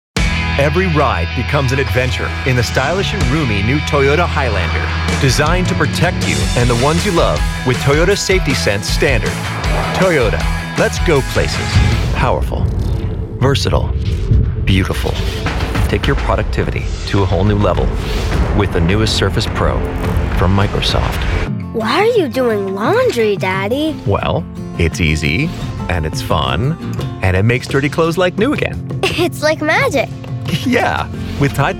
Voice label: Commercial